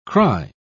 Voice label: "cry", pronounce

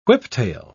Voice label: "whiptail", pronounce

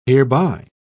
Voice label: "hereby", pronounce

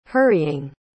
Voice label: "hurrying", pronounce

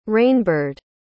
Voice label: "rainbird", pronounce

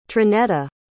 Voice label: "trinetta", pronounce

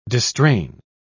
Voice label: "distrain", pronounce

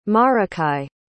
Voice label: "maracay", pronounce

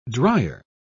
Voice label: "dryer", pronounce

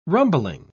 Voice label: "rumbling", pronounce

